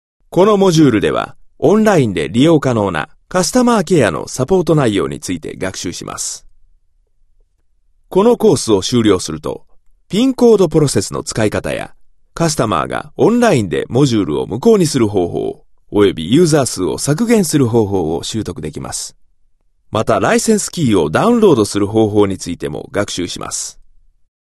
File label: Japanese corporate